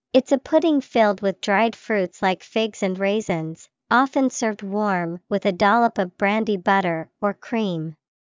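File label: figgy pudding, English vocabulary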